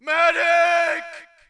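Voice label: british medic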